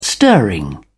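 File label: "stirring", English, British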